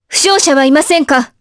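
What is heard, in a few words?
Vox Victory, Japanese; Priscilla; kings raid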